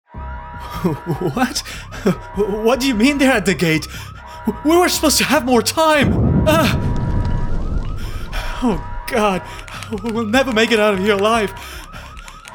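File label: Character Natural, English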